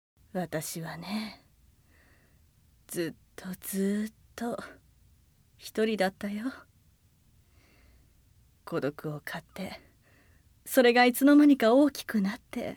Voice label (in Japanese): 女性 台詞; アニメ